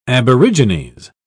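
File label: "aborigines", British English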